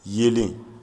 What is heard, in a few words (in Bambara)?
"yeelen", Bambara; nature